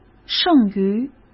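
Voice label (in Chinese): "剩余", Mandarin Chinese; hsk; chinese-flashcards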